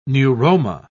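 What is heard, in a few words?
"neuroma", British English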